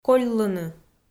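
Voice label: "кольлыны", Komi, female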